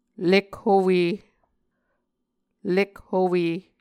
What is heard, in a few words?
"lekhowe", Muscogee